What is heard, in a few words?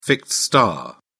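"fixed star", British English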